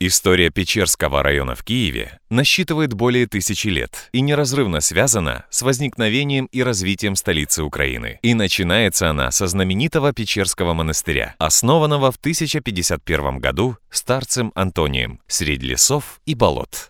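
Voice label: Russian, zg, middle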